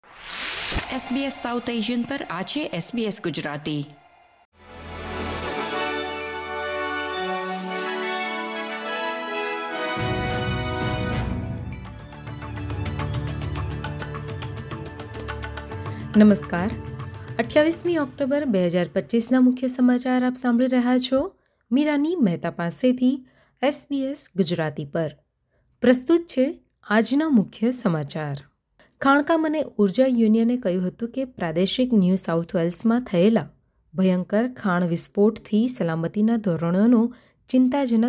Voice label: gujarati news bulletin; SBS Gujarati Australian update: 28 October 2025